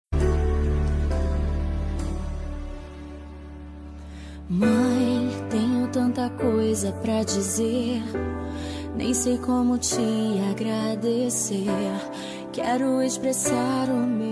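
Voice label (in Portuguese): DIA DAS MAES PARA AVO male, telemensagem; DIA DAS MAES PARA AVO MASC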